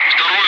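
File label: Russian calm; Wingman; DCS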